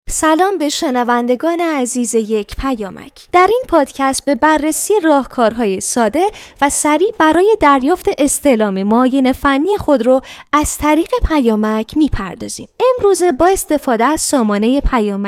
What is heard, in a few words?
narrator